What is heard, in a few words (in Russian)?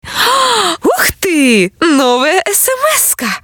devushka sms